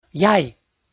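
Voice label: "яй", Komi, male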